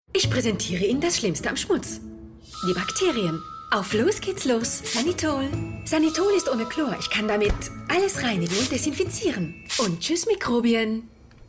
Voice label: female german clean comercial

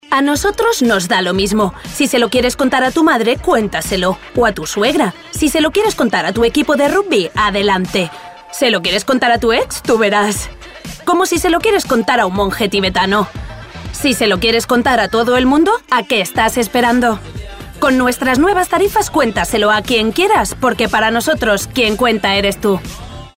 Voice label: female spanish phone comercial

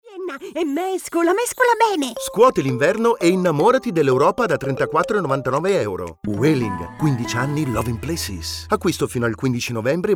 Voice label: male italian comercial; ideasonora

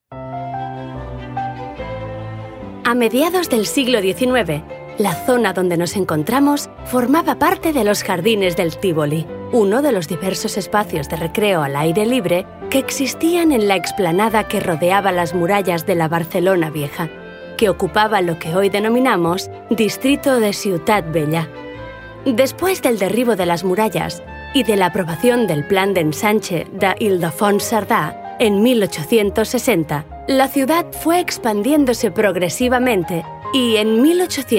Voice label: female spanish audioguide comercial

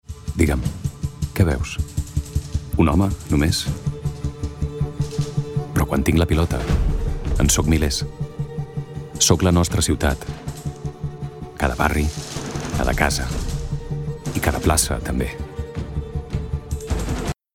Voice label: male catalan football comercial

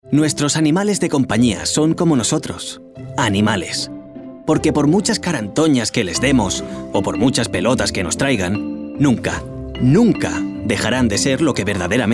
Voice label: male spanish pet comercial

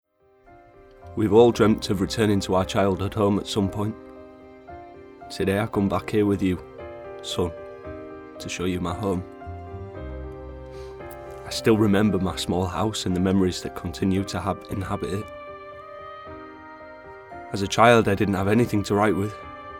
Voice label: male british english ngo comercial; ideasonora

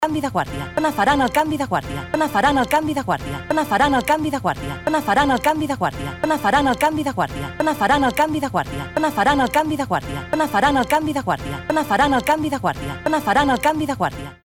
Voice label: female catalan comercial; ideasonora

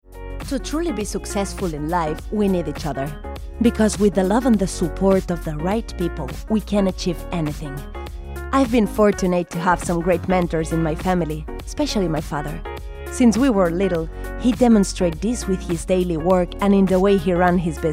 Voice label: female non native english bussiness comercial

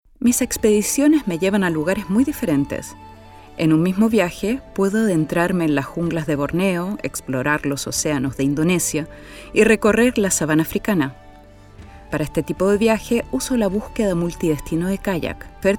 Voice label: female latam chilean comercial; ideasonora

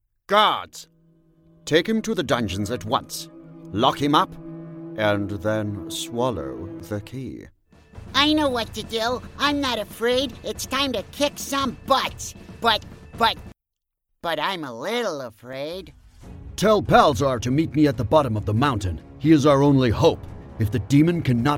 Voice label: male british english actor; ideasonora

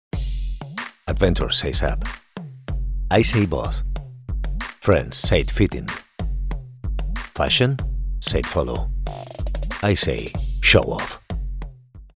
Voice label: male non native english car comercial; ideasonora

